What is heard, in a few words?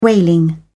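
"whaling", British English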